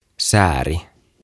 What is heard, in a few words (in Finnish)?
"Sääri" Leg